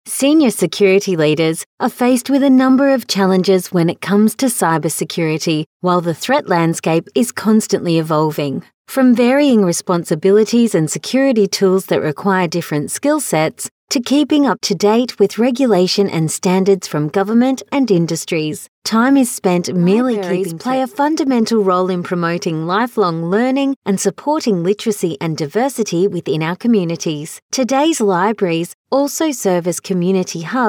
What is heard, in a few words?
Corporate